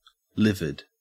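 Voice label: "livid", Australian English; pronunciations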